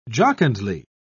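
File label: "jocundly", British English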